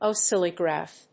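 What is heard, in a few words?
"oscillograph", English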